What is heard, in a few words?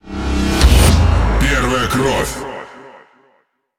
Ru Speech First Kill; World Of Warships